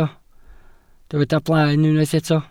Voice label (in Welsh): "blaen", Welsh